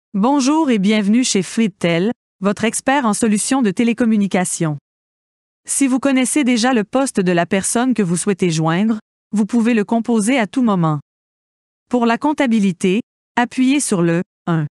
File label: CLEAR VOICE